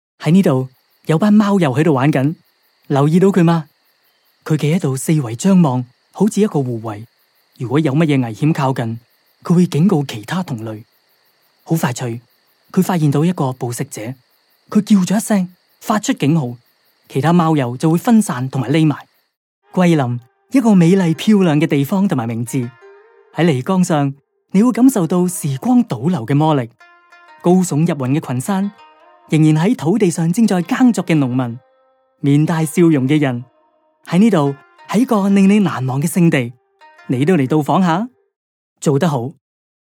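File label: Canto narration, Cantonese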